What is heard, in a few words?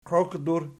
"qioqdur", Archi